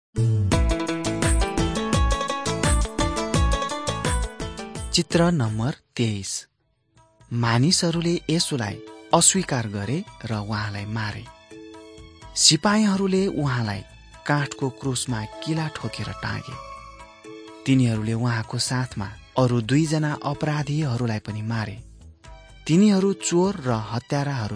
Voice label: mighty men of god nepali